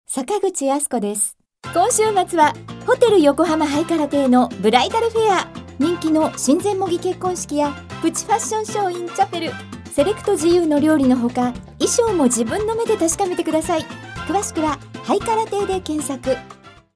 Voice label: female commercial